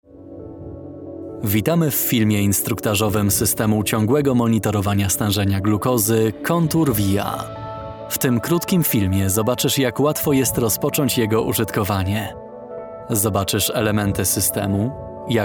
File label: explainer elearning